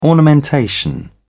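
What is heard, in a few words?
"ornamentation", British English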